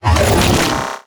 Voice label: victory jp